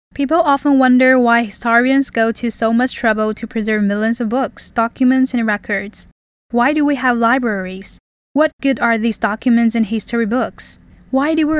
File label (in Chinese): 美音